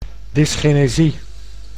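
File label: "dysgenesie", Dutch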